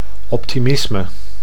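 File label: "optimisme", Dutch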